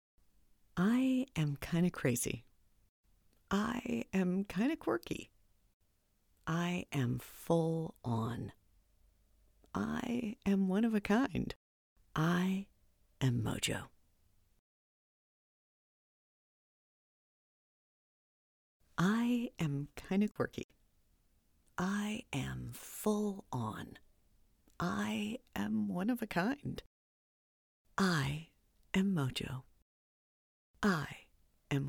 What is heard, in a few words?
women mini demo